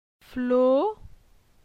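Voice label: "Flo", French; phonetique; la syllabe o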